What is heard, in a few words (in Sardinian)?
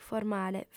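"formale", Sardinian, female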